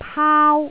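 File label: "paau1", Cantonese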